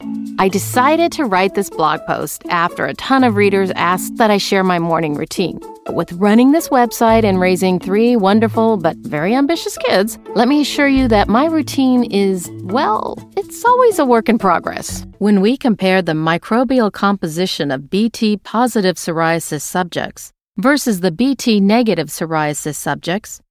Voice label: E Learning